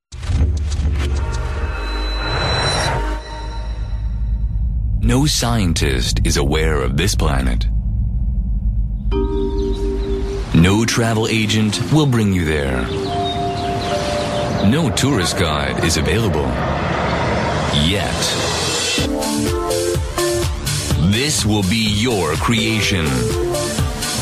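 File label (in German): US English Werbung Dynamisch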